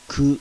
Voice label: "KU", kana